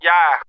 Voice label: "yeah" quiet